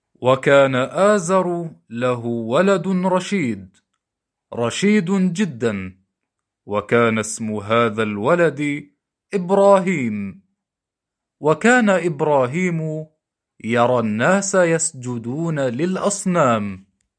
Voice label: book narration